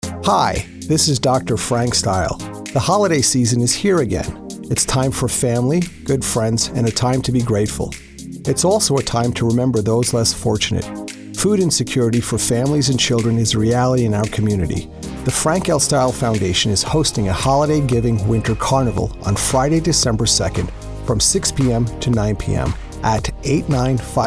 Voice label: Radio Ray Event Announcement